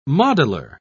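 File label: "modeller", British English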